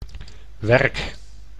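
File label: "werk", Dutch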